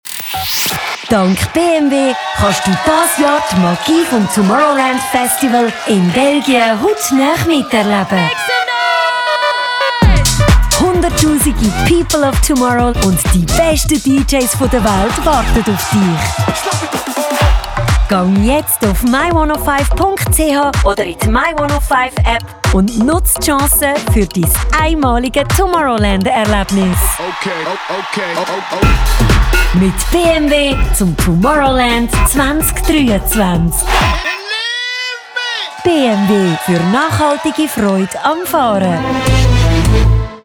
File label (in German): Audio Trailer; BMW zum Tomorrowland Promo